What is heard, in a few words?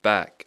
"back", English; C (hard); Speech Pro